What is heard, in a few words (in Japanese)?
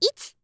"イチ", カウント